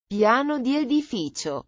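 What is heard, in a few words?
"piano di edificio", Italian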